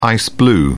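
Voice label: "ice blue", British English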